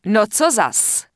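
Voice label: Human Female Standard NPC Pissed, Czech; wowpreklad; czwow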